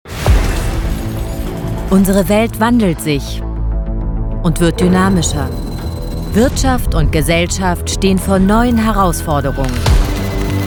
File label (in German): imagefilm; fraunhofer weknowhow